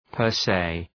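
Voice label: "per se", English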